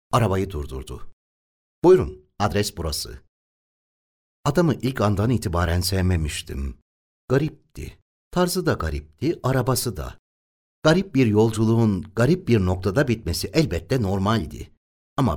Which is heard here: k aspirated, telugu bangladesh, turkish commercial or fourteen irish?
turkish commercial